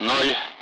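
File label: Speech Russian; Allied Flight; Digits; DCS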